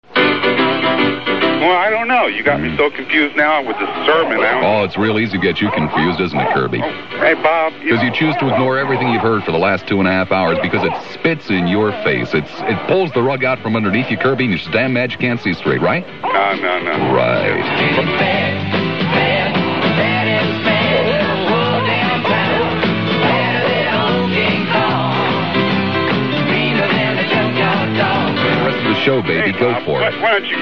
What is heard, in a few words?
Promo; WFLA